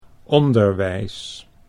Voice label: "onderwijs", Dutch